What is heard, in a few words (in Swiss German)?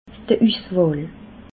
"uswahl", alsace